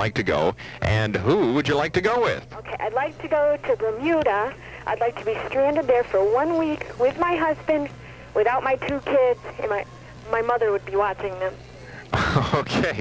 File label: fm air check phone call; wdrc